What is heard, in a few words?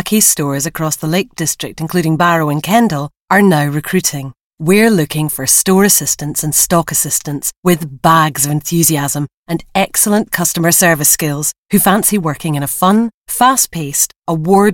Friendly Commercial